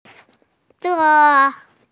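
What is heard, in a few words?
"de1", Mandarin Chinese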